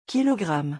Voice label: "kilogramme", French